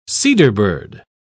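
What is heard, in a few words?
"cedarbird", British English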